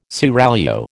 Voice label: "seraglio", American